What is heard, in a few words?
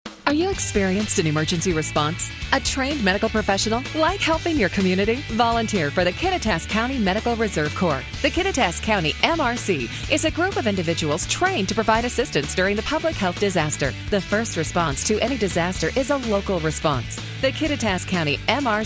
psa; health; emergency; kittitas county medical reserve corps